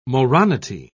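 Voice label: "moronity", British English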